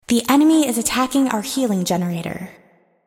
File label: Enemy Attacking, Announcer; Unit Voices